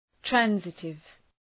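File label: "transitive", English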